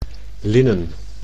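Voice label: "linnen", Dutch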